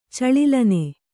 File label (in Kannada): "ಚಳಿಲ್ಲನೆ", Kannada